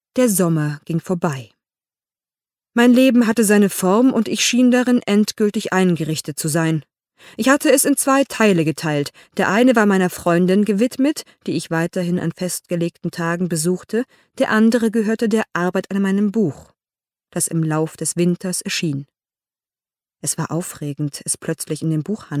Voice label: hoerbuch; valloton

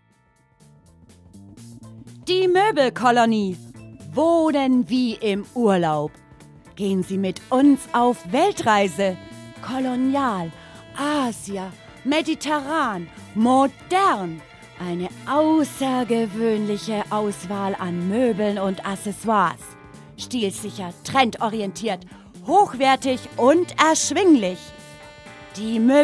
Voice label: moebel werbung

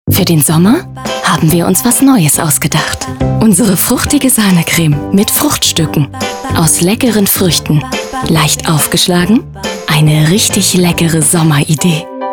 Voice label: nachgesprochen werbung

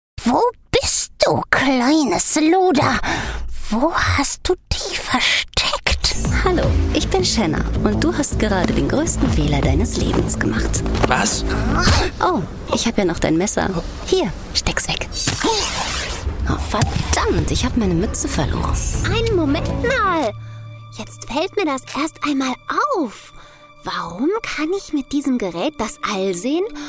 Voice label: hoerspiele

